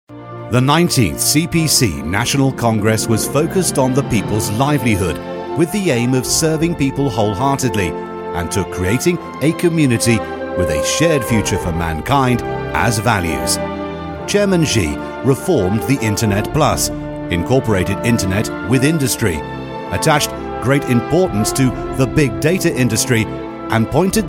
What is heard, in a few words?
movie trailers